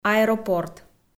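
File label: "аэропорт", Komi-Zyrian, female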